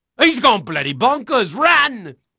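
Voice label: English male; Pirates Of The Caribbean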